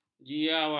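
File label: "jiawang", Malay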